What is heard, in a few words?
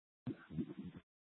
Fire Departments, scanner